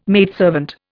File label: "maidservant", Indian